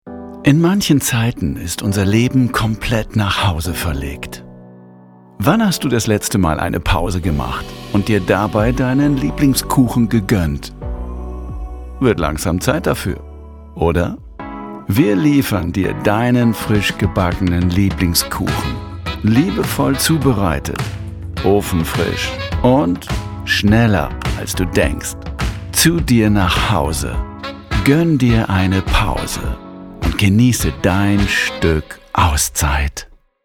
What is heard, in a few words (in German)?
werbung